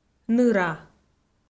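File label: "ныра", Komi, female; audio dict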